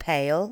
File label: L2 english pale